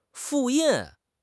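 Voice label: "fu4 yin4", Chinese; HSK4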